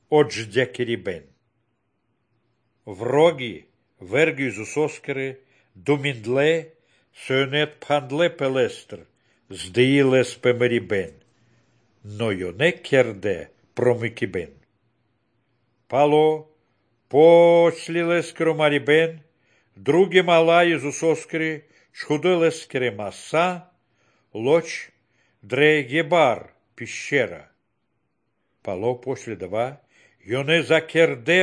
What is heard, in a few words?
good news baltic romani